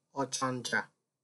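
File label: "ochanja", Nigerian Pidgin, male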